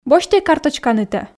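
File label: Komi, female; босьтӧй карточканытӧ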